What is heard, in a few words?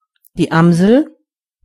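blackbird, German voices words; gcompris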